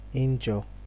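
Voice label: "injure", Nigerian Pidgin, male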